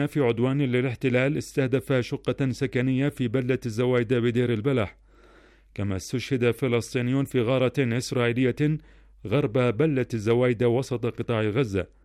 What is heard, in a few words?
Arabic